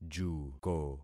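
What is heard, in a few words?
"juko", concatenated syllables; Affixing experiment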